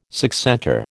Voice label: "succentor", American

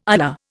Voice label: "ular", Indian

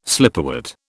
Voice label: "slipperwort", British

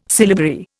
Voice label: "syllabary", Indian

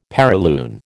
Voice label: "perilune", American

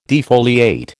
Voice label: "defoliate", American

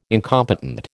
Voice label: "incompetent", American

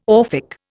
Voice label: "Orphic", Indian

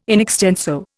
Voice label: "in extenso", Indian

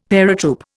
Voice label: "paratroop", Indian; Dictionary

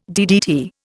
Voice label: "DDT", Indian

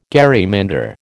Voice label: "gerrymander", American

